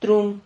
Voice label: "trun", Silabas

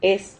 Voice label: "hez", Silabas

